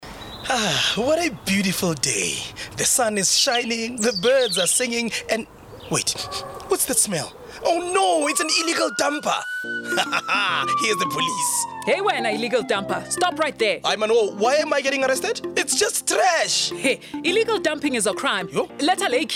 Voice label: Ad English; Pikit Up